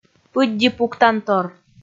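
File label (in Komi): "пыдди пуктантор", Komi, female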